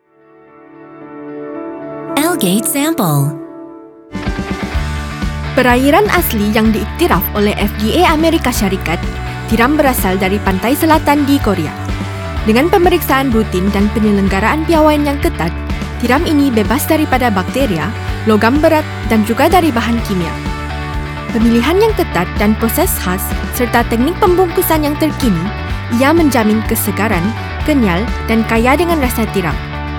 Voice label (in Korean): 말레이 여 기본톤